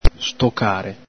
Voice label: "stocare", Veneto